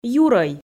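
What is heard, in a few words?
"юрӧй", Komi, female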